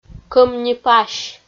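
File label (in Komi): "кӧм ни пась", Komi, female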